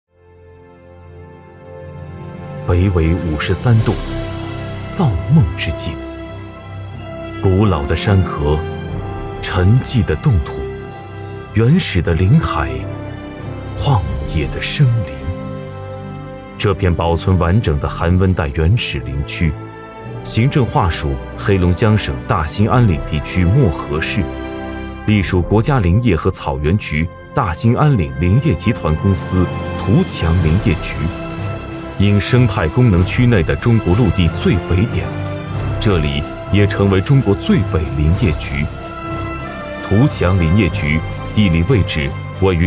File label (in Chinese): 男 纪录片 自然大气 中国最北湿地